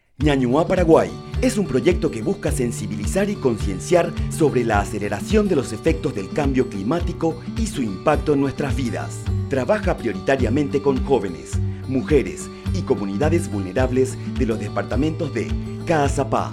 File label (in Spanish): Spot Radial; Altervida